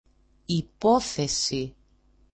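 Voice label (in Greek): "ipothesi", Greek; mod.gre: υπόθεση; [i'poθesi]; hypothesis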